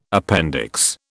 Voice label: "appendix", British